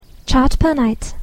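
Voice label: "charge per night", English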